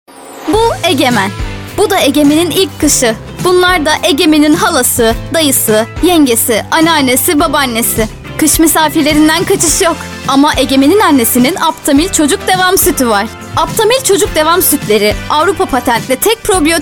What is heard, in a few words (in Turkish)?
reklam; aptamil